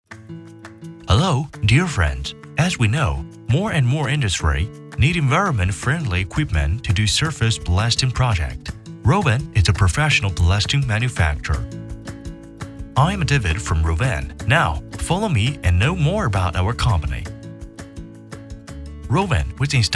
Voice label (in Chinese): 男,英专题 系统讲解